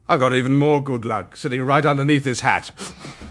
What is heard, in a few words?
happy, speech; fertig classic games; zanthor